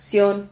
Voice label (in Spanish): "xion", Silabas